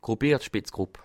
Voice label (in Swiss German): "gruppiert", Alsace